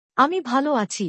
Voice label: "I am fine", Bangla; Basic Greetings and Courtesy